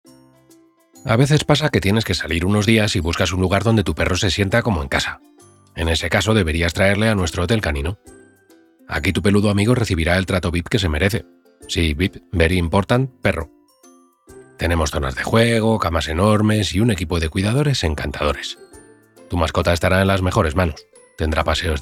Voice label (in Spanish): natural